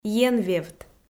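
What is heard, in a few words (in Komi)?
"енвевт", Komi, female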